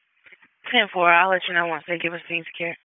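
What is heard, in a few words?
Public Safety Tactical, p25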